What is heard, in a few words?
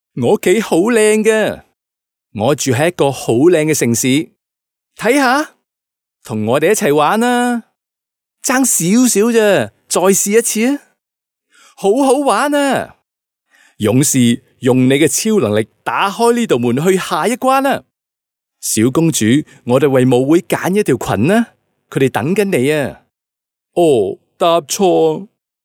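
Cantonese male children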